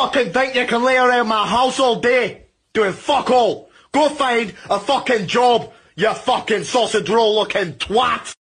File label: Angry Irish Guy Swearing